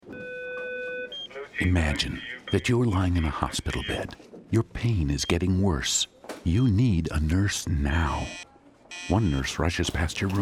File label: radio ad